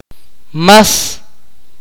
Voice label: "rub", Akateko